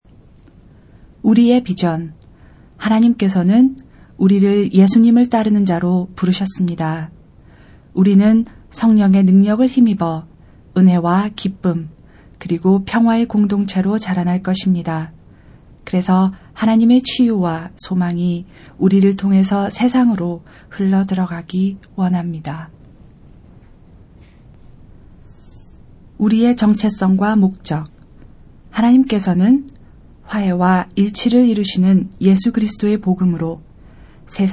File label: Korean; Purpose Spoken Versions